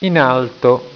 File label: "in alto", italian